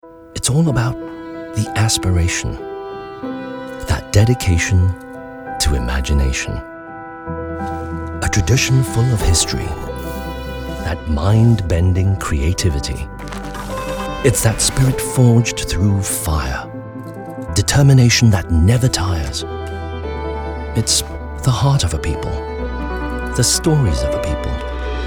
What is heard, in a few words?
Commercial